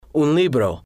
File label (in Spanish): "un libro", Spanish